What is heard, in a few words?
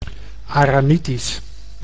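"Aramitisch", Dutch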